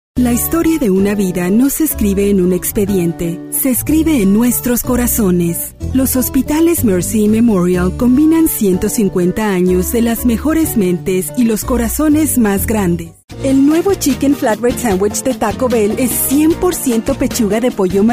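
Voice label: Spanish Latin America female sales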